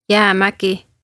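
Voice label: "jäämägi", Estonian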